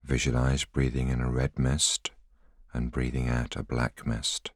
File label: voiceover